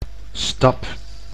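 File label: "stap", Dutch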